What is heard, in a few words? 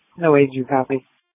Police Tactical Encrypted